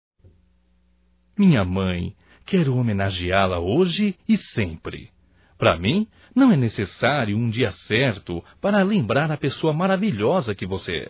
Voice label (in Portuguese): HOMENAGEM A MãE, masc; Homenagens; Telemensagens 2017